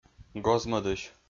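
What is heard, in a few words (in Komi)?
"гоз-мӧдысь", Komi-Zyrian, male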